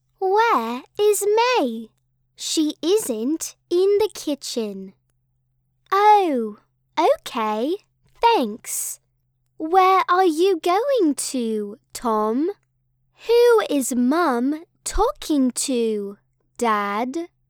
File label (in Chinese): 英式幼童 英语教材